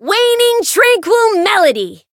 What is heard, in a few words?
Voice chant; suzette